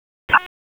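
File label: Police Southwest District Disp, radio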